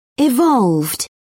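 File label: "evolved", British English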